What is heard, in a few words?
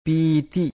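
"piiti", Pali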